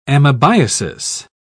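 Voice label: "amebiasis", English, British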